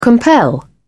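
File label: "compel", British English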